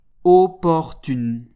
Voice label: "opportune", French

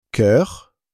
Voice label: "cœur", French

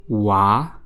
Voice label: [wa], French

